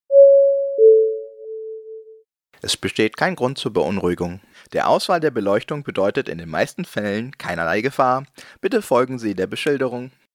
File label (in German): durchsage